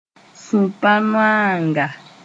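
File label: "sũ-pa-noaanga", Mooré; sadness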